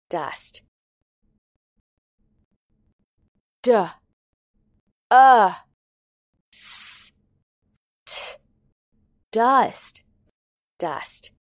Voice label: "dust", English

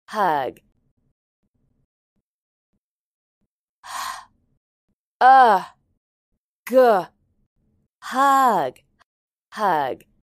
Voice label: "hug", English

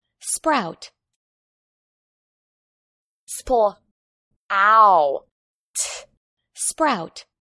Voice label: "sprout", English

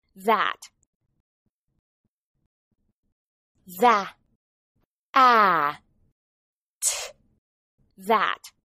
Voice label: "that", English

